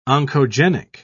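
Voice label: "oncogenic", English, British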